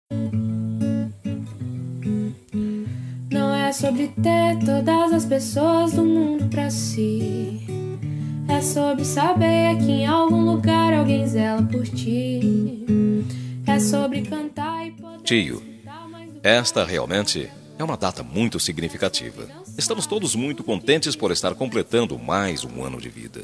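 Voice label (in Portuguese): ANIV TIO male, telemensagem aniversario tio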